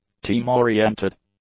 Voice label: "team-oriented", English; adjectives

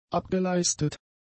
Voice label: "abgeleistet", German; adjectives

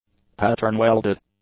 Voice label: "pattern-welded", English; adjectives

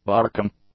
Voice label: "barking", English; nouns

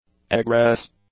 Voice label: "egress", English; nouns

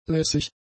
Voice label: "lässig", German; adjectives

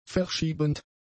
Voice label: "verschiebend", German; adjectives

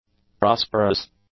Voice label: "prosperous", english; adjectives

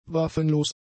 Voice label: "waffenlos", German; adjectives

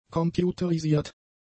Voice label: "computerisiert", German; adjectives

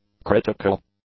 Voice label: "critical", English; adjectives

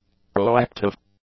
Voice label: "proactive", english; adjectives

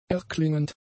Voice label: "erklingend", German; adjectives

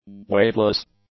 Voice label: "waveless", English; adjectives

